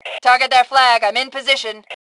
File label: Target Flag, Female; T2 Female 03